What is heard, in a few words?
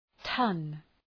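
"ton", English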